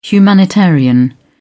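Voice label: "humanitarian", English, uk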